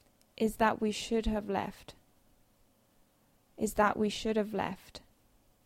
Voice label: Dictation; phonology